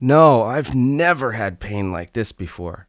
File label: Never had pain like this before, Adult Male Speech